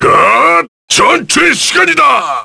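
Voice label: Vox Skill, Korean; Gau; kingsraid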